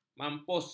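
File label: "mampus", Malay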